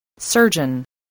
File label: "surgeon", English